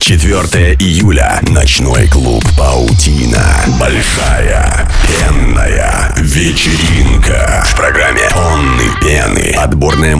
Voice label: АУДИО АФИША; Паутина - 4 Июля

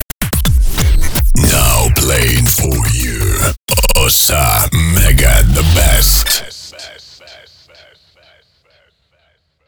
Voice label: ДЖИНГЛ, Дикт.

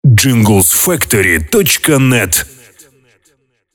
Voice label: Войс дроп - Мужской голос

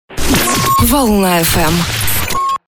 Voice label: джинглы для DJ радио шоу

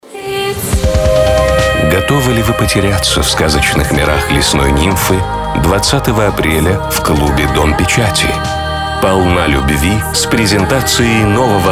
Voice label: Аудиореклама - Диктор

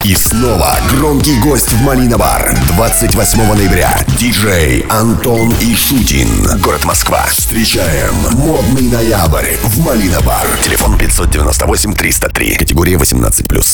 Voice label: АУДИО АФИША

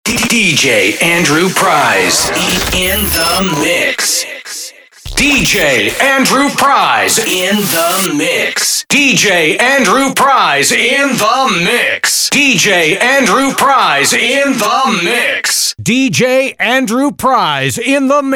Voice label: Диктор, DJ-jingle

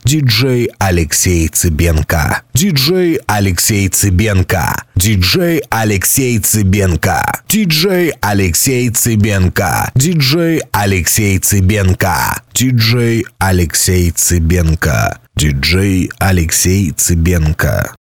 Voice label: НАЧИТКА

